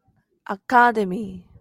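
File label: "academy", Japanese, female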